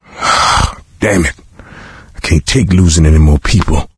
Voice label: survivor mourn mechanic, coach, survivor voice; source engine; left 4 dead 2